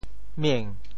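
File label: "mieng2", Teochew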